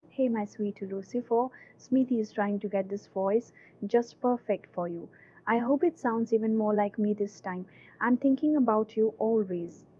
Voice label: cloned voice